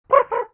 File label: monster mumble thanks; monster mumble 2